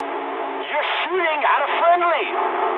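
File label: English player friendly fire mood medium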